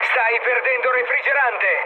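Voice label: Italian damaged water mood med, speech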